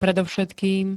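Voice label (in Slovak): "predovšetkým", Slovak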